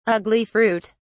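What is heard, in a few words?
"ugli fruit", British English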